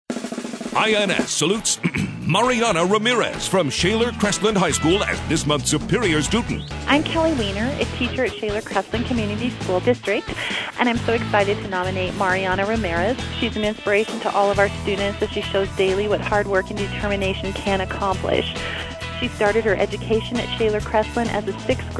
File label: commercial; INS Superior Student 2009